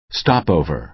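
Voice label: "stopover", American English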